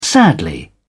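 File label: "sadly", English, British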